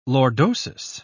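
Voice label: "lordosis", British English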